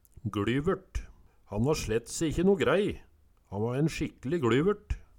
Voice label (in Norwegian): "gLyvert", Numedalsmål